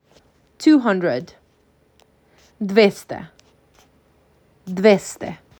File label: MAC 6, macedonian phrasebook; the talking macedonian phrasebook